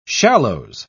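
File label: "shallows", British English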